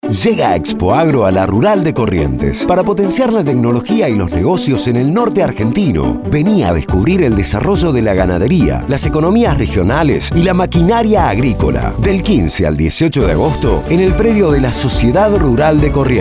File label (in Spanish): Spot radial; Expoagro en La Rural de Corrientes